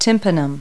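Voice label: "type in", English words; Multi-Lingual Talking Picture Dictionary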